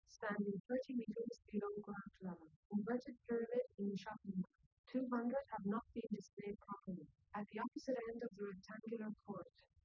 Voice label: female liverec; SiSEC08; SiSEC underdetermined